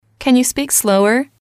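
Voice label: "can you speak slower", female, English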